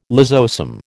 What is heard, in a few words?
"lysosome", American